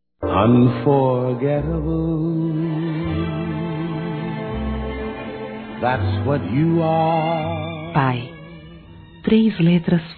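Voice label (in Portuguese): Desculpas Pai, Feminino; Telemensagens; Telemensagens 2017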